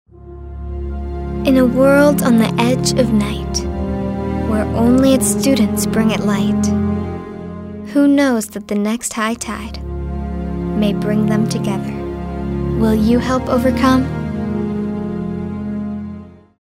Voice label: kids

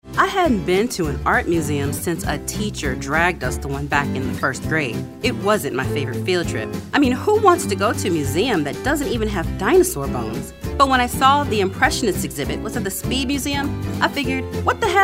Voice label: conversational

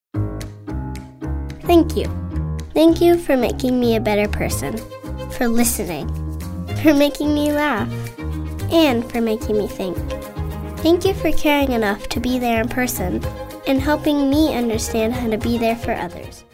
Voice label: kid next door

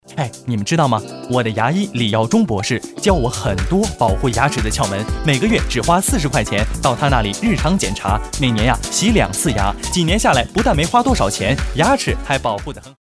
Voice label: Foreign Commercial

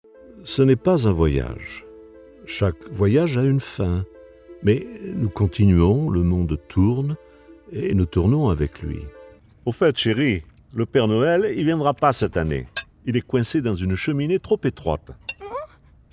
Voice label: French Showcase

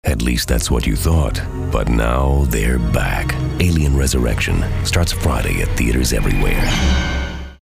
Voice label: movie trailers

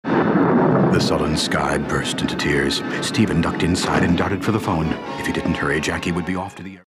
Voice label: ominous

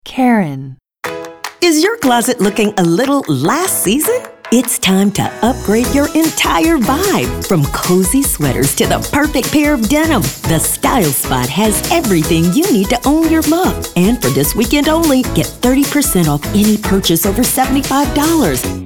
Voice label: storyteller

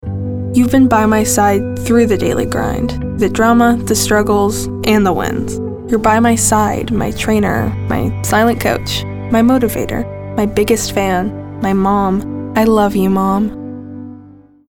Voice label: Mom

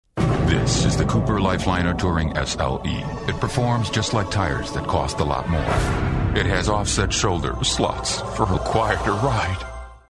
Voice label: tough and gritty

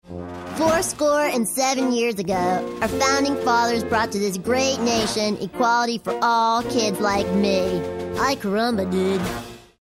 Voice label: cartoon

